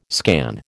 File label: "scan", American